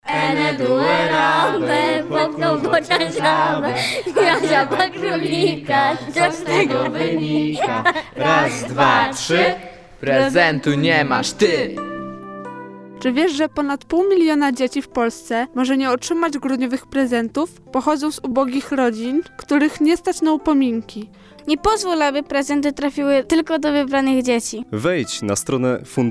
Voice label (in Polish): Spot kampanii Dawanie jest radością